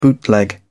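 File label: "bootleg", British English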